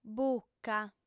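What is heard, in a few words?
"buca", female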